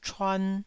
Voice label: "chuan1", taiwanese